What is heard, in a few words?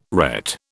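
"ret", British English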